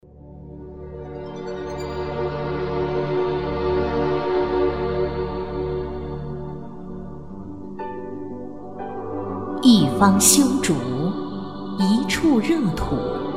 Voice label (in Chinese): 朗诵, 女